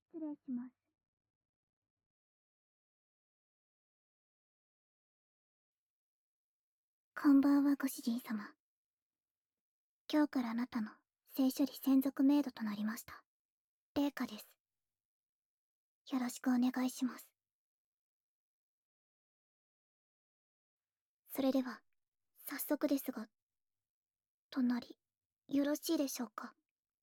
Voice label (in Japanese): 事務的耳舐めご奉仕, ASMR; レイカさんの事務的耳舐めご奉仕; SEなし